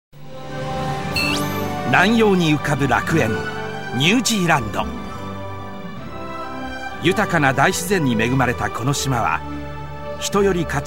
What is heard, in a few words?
narration